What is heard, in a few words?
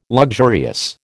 "luxurious", American